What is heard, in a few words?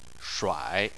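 "shuai" tone 3, Chinese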